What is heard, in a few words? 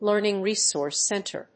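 "Learning Resource Center", English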